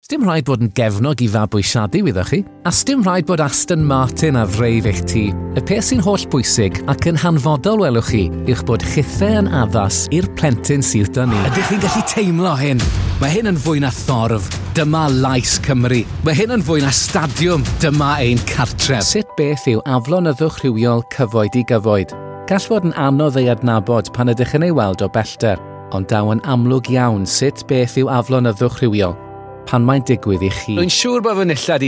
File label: welsh male welsh language showreel